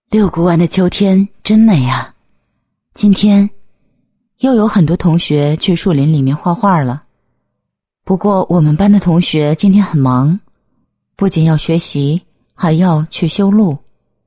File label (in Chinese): 女; 学校; 场口中学建校六十周年电视片